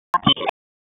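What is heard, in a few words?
EMS Dispatch, p25; wake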